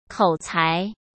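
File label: "口才", Mandarin Chinese; chinese-flashcards; hsk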